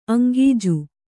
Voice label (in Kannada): "ಅಂಗೀಜು", Kannada